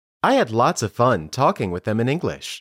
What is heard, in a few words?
Dictation